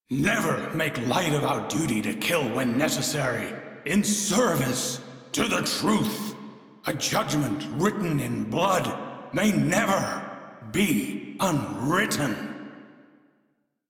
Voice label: Crew Idle, Male; Railjack Crew; Arbiters Hexis